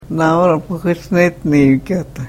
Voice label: karuk

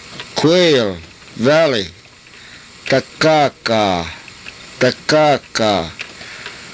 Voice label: "takaakaa", karuk

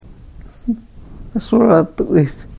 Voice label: karuk

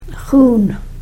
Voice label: "xuun", karuk

